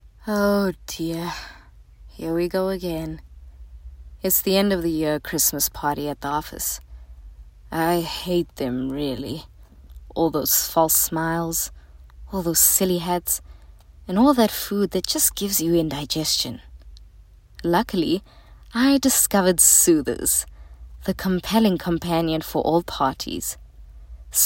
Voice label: English Conversational